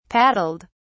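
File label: "paddled", British English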